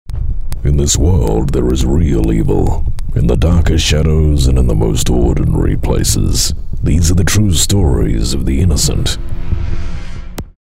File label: AU English